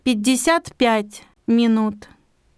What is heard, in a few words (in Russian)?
russian 55 min; samsung YP-U5; Audio User Interface